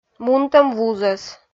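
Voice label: "мутӧм вузӧс", Komi, female; kpv audio dict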